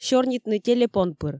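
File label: "сёрнитны телепон пыр", Komi, female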